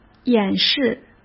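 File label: "掩饰", Mandarin Chinese; chinese flashcards; hsk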